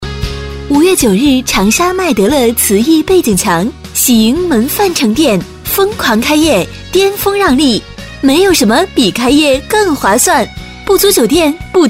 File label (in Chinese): 促销; 麦德乐